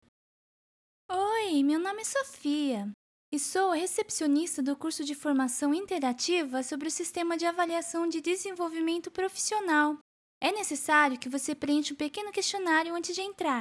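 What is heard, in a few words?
portuguese, Brazilian Portuguese voice overs, Female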